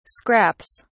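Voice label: "scraps", British English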